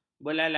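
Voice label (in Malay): "belalai", Malay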